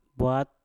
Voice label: "bwat", Mpur; mulut